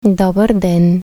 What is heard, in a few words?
"dobar den", Bulgarian hello; Bulgarian language